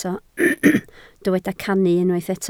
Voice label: "cannu", Welsh